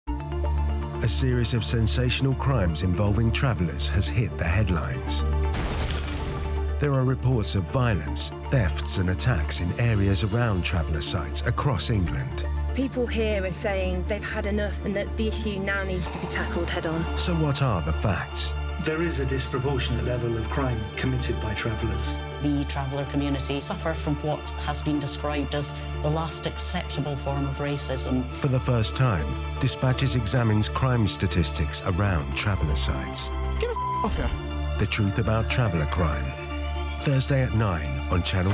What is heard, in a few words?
Promo; C4 Dispatches